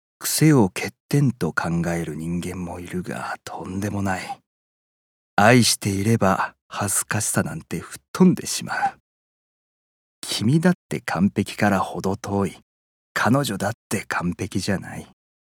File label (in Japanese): 諭す40代